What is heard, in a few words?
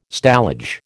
"stallage", American